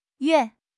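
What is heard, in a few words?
"yue4", Mandarin Chinese; HSK1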